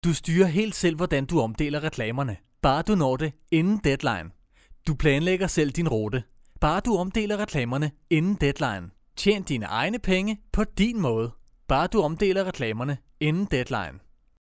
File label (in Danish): Reklameomdeling